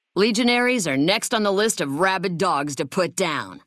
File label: female adult greeting; Fnv